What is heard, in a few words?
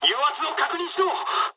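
Japanese damaged oil mood high; War Thunder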